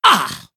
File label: pain